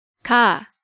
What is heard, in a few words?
"Ga", Hangeul sounds; DigitalSoju